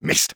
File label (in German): Engineer negative vocalization, de